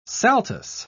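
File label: "saltus", British English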